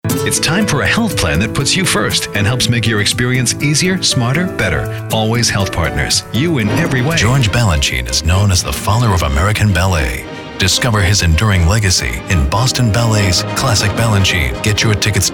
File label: COMMERCIAL